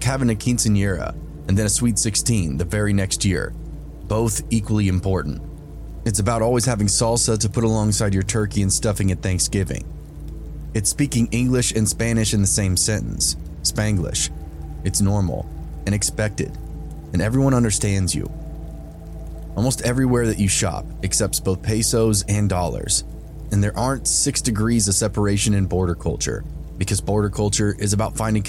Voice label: disturbing true subscriber horror stories with rain haunting ambience